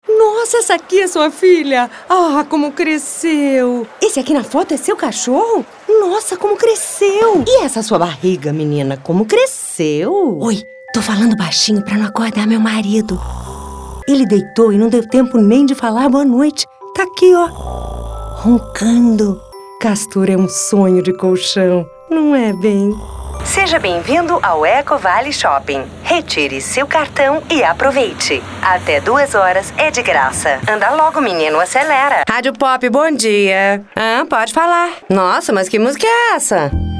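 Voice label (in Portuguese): Português - Brasileiro, Atriz, Feminino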